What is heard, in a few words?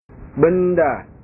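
"benda", Indonesian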